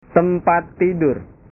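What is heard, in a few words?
"tempat tidur", Indonesian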